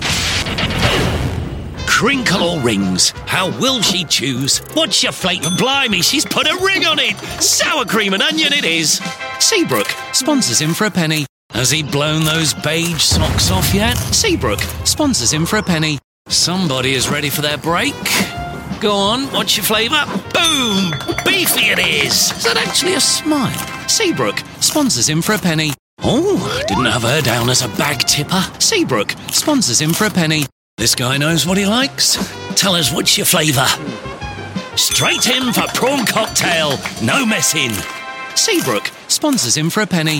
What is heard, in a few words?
quirky